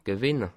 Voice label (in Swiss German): "gewinne", alsace